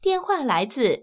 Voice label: ivr call from, zh cn; BigBlueButton; freeswitch